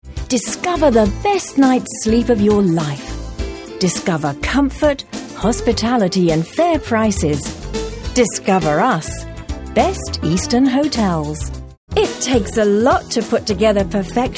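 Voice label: British English, TV commercial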